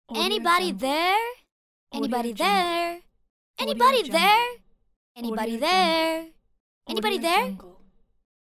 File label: teenage girl asking anybody there